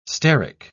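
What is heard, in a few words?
"steric", British English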